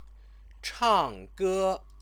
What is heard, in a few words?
"changge", Chinese; 唱 歌; sing a song; verb-object